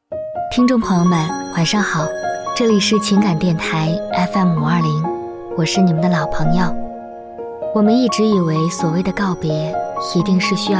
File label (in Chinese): 情感电台